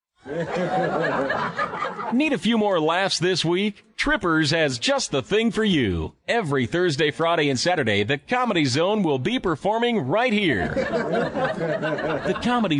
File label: Male Voice Sample